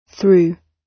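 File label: "through", British English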